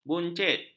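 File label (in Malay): "buncit", Malay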